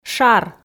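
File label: "шар", Komi, female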